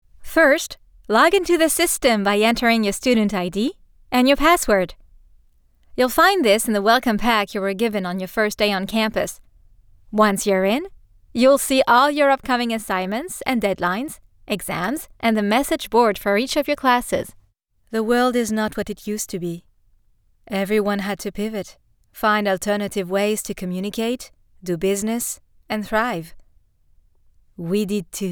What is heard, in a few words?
CORPORATE English